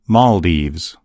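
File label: "maldives", English; gcompris; geography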